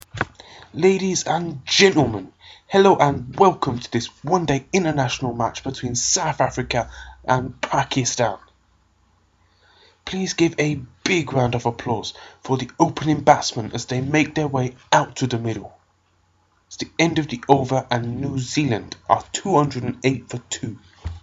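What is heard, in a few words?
not at all preppy englishman, commentary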